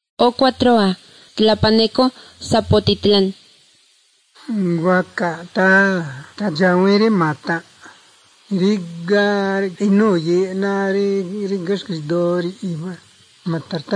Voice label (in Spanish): spanish mexico